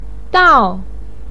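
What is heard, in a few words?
"dao4", Mandarin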